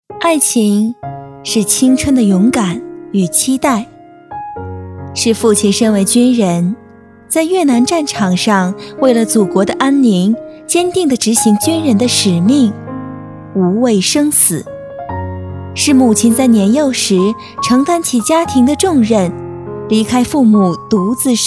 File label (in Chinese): 女 专题 甜美